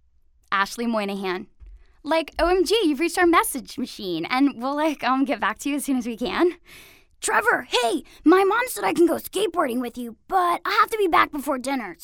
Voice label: Animation, Voice Over Actor Female Young Hip Fresh Fun